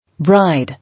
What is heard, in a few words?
"Bride", English